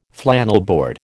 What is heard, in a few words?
"flannelboard", American